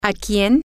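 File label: to whom, spanish, female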